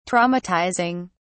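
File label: "traumatizing", British English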